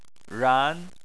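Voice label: "ran", Chinese, tone 2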